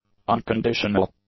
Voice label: "unconditional", English; adjectives